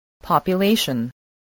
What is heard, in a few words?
"population", English